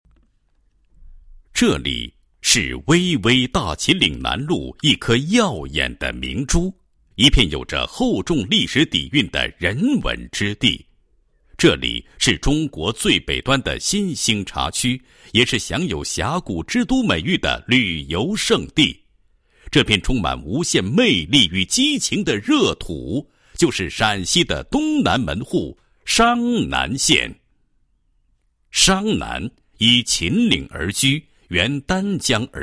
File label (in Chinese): 男 党建 专题片, 配音; 某县党建工作纪实专题片